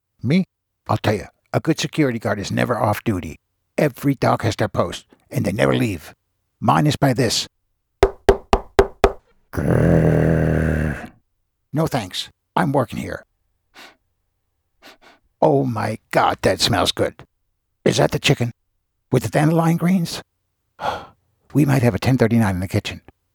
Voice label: COMEDY Security Guard Knocking